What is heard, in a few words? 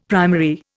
"primary", Indian; Dictionary